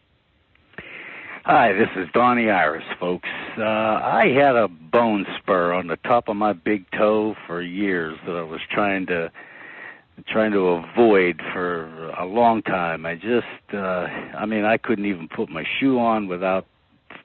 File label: Voicemail Testimonial